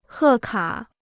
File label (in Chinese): "贺卡", Chinese